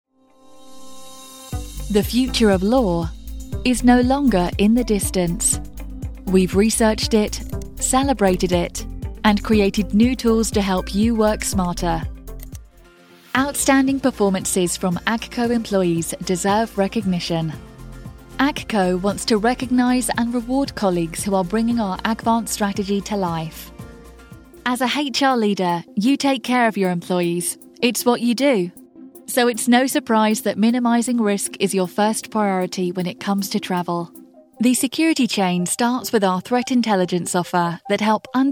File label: Corporate, british female voiceover